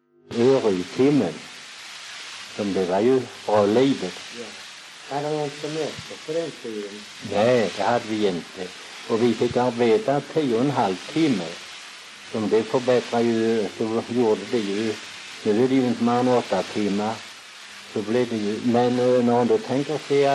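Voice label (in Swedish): Väsby Skåne, Svenska sagor dialekter; Folkminnen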